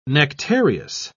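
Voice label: "nectareous", British English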